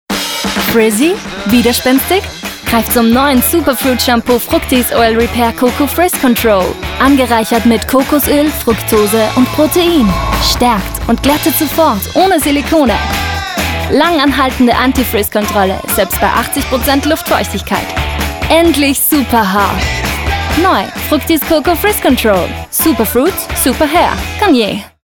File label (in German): Sprechen Werbung